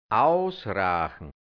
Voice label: "ausrachn", hianzisch Mundart Burgenland; ausrauchen; ausräuchern

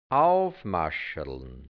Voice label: "aufmascherln", hianzisch; schmücken (z.B. Hochzeitswagen)

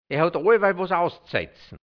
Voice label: "ea hout ollwal wos auszse(i)tzn", hianzisch, Dialekt, Burgenland; er kritisiert ständig

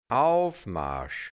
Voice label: "Aufmarsch", hianzisch

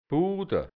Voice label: "Buda", hianzisch Dialekt Burgenland; Butter

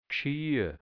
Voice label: "Gschia", Mundart, Burgenland, hianzisch; Geschirr

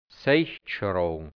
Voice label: "Se(i)chtschrogn", Hianzisch, Mundart, Burgenland; Gestell für Se(i)chtschaffl

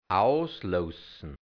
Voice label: "ausloussn", hianzisch Mundart Burgenland; auslassen; Schmalz gewinnen; negativ: schweigen, geizen

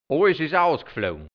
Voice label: "olls is ausgflougn", hianzisch Mundart, Burgenland; ausfliagn

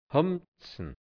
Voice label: "humtzn", Hianzisch Mundart; ärgern; Schwierigkeiten bereiten

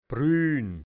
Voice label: "Brülln", Burgenland Dialekt hianzisch; Brille

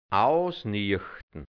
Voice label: "ausniachtn", hianzisch Mundart Burgenland; ausnüchtern

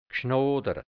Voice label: "gschnoderat", Hianzisch, Mundart, Burgenland; gesprächig; geschwätzig

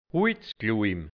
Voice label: "Huizkluibm", Hianzisch Mundart; s Holz spalten

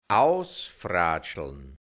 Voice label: "ausfratschln", hianzisch Mundart, Burgenland; ausfragen; ausforschen

